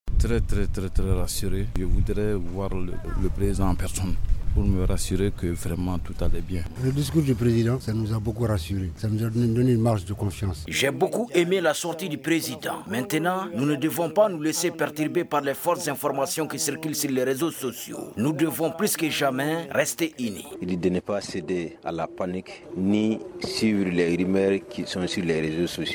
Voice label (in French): VOX POP PRESIDENT FR; report de plusieurs evenements suite a lattaque du 25 avril